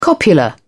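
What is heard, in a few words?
"copula", British English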